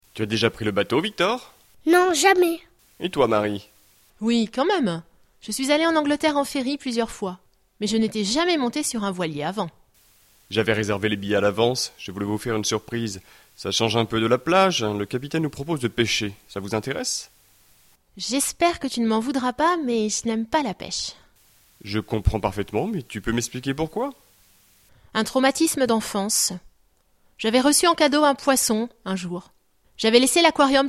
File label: dialogue, French